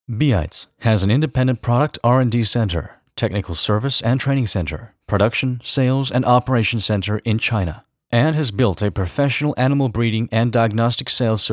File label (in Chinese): 配音词 英文; 倍特双